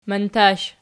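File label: "mantash" nominative, Archi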